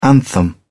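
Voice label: "anthem", British English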